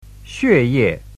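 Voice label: "xue4ye4", Mandarin Chinese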